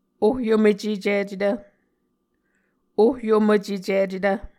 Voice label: "ohyomocecicetv", Muscogee

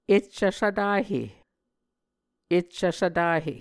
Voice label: "eccv svtahe", Muscogee; Mvskoke Words with Repeated Consonants; Onvkv Ohhvyayices; A Dictionary of Creek/Muskogee; SIL International

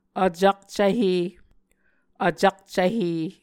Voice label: "vcakcvhe", muscogee; Mvskoke Words with Voicing Consonants; A Dictionary of Creek/Muskogee; SIL International; Onvkv Ohhvyayices

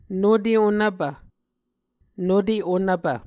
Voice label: "nute onvpv", Muscogee

